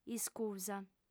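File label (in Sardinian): "iscusa", female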